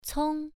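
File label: "cong" Mandarin, first tone, pronunciation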